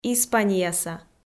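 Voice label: "испанияса", Komi, female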